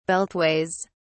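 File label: "beltways", British English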